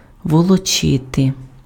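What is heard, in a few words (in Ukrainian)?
"волочити", Ukrainian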